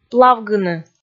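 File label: "плавгыны", Komi, female; audio dict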